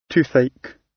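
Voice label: "toothache", British English; Time, history; Thời gian, lịch sử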